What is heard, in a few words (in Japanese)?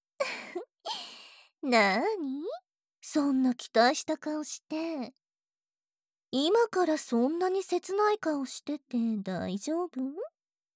耳舐め、乳首責め、言葉責め, ASMR